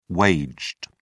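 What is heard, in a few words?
"waged", British English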